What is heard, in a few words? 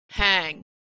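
"hang", American English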